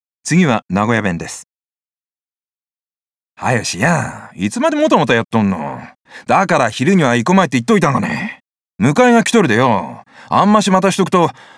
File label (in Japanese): 方言